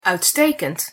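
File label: "uitstekend", Dutch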